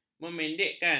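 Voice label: "memendekkan", Malay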